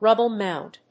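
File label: "rubble-mound", English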